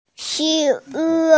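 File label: "shi2wu4", Chinese, F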